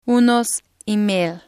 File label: "unos email", Spanish